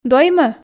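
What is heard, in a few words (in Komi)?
"доймӧ", Komi, female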